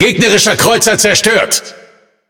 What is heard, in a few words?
Speech Destroy Enemy Cruiser, DE; World Of Warships